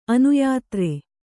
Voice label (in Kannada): "ಅನ್ಯದಾರ", Kannada